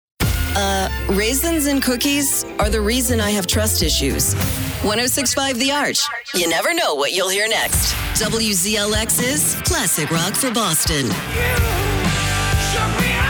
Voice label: Radio Imaging